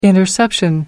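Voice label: "interception", British English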